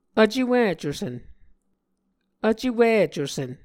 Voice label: "vcewicusen", Muscogee